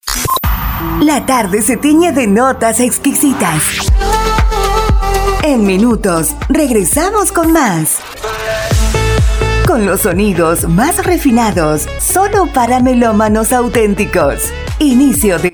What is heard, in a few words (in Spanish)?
Ambient FEM; Arte; Invierno 2024